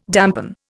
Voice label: "dampen", Indian